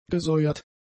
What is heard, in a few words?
"gesäuert", German; adjectives